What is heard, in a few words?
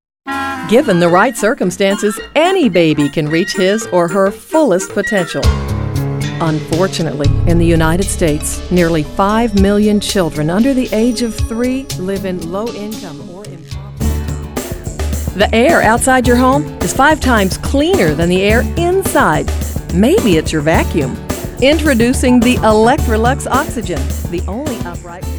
english females